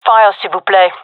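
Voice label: request fire, gendarmerie female; vo; agents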